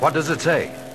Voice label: what does it say, male; questions